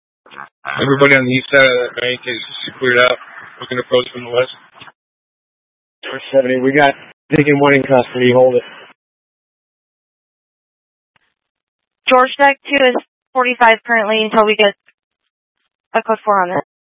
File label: Mutual Aid-Intersystem Interop B, scanner